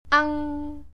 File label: "ang", Cantonese